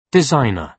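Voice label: "designer", British English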